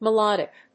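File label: "melodic", English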